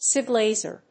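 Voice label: "YSGG laser", English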